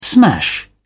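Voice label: "smash", English, British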